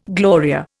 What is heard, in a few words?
"Gloria", Indian